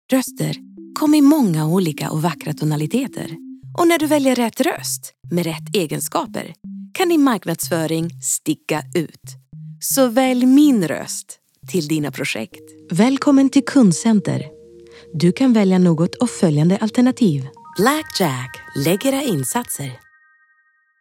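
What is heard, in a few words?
Swedish Mix Music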